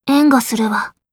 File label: yes, voice commands; svencoop; Blanc